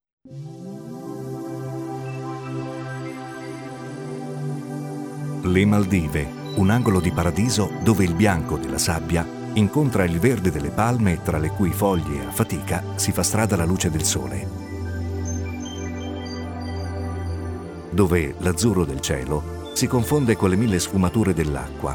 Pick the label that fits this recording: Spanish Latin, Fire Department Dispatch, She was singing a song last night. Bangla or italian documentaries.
italian documentaries